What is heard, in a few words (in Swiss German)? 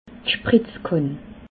"spritzkann", alsace